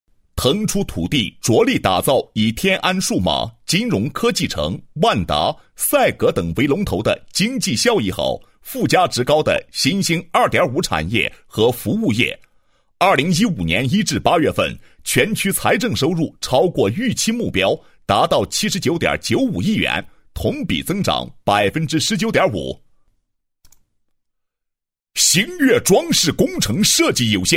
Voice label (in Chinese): 男 企业, 配音; 家装别墅酒店工厂商业空间的设计与施工装饰录音